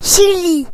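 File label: "chile", French; gcompris-data; geography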